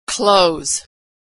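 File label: "clothes", American English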